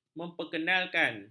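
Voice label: "memperkenalkan", Malay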